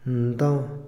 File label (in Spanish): "rey", Otomí, hombre; INALI